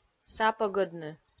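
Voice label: "сапӧгӧдны", Komi, female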